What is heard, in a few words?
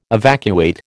"evacuate", American